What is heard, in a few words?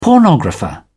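"pornographer", British English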